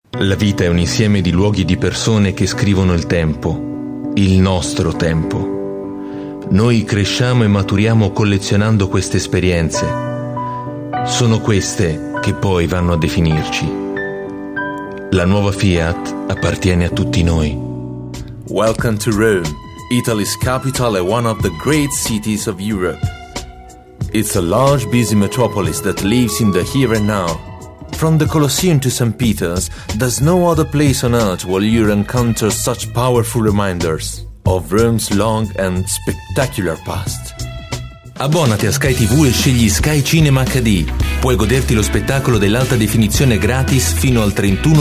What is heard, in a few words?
Italian